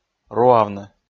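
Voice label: "руавны", Komi, male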